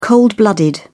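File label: "cold blooded", British English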